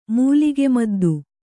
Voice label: "ಮೂಲಿಗೆಮದ್ದು", Kannada

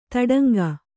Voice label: "ತೊಡಂಗ", Kannada

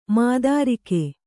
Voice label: "ಮಾತೃಕೆ", Kannada

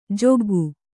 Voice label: "ಜಗ್ಗು", Kannada

